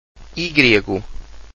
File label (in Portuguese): "egrego", Portuguese